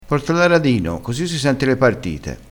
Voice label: "aradino", crespinese

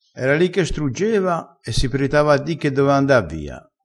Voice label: "strugge", crespinese

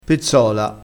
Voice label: "pezzola", crespinese

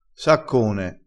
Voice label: "saccone", crespinese

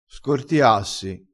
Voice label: "scortiassi", vocabolario dialettale

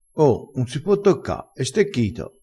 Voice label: "stecchito", crespinese

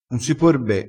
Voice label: "prende r foo", crespinese

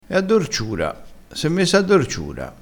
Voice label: "dorciura", crespinese

